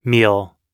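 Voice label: "meal", English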